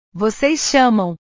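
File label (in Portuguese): "vocês chamam", Portuguese; chamar; portugues/verbos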